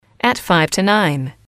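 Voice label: five To Nine, english, female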